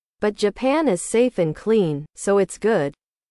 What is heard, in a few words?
english